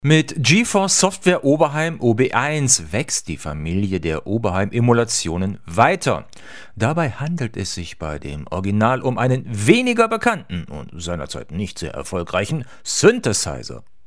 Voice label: Rode Interview Pro Wireless Pro Dynamic Gain HPF 0